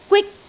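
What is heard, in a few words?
"gwik1", Cantonese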